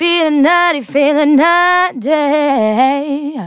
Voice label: Phrase Female; Vengeance Samples; Phrases